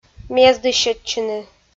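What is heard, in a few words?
"мездысьӧдчыны", Komi, female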